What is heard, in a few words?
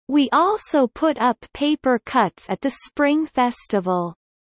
Kelly low; spring festival